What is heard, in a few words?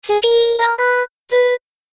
voice speed up